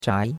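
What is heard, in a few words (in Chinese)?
"zhai2" Chinese; Cours-de-Chinois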